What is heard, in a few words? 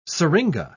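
"syringa", British English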